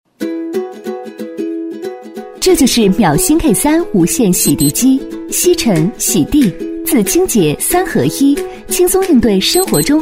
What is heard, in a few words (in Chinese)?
女, 产品介绍, 无线洗地机, 科技轻快; 秒新K3无线洗地机; 女21-大气知性 轻松活泼